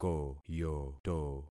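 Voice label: "koyoto", concatenated syllables; Affixing experiment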